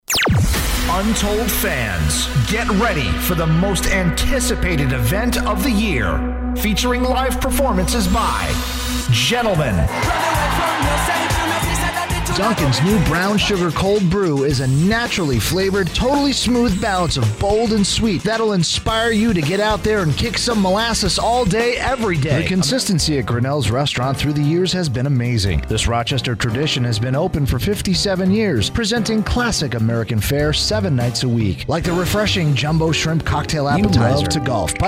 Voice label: Commercial